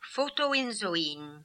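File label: "Photoinzoin", pronunciations; Fourth Way; Beelzebub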